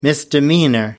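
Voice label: "misdemeanor", British English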